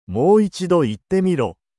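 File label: anime, Japanese, Hilarious Anime Quotes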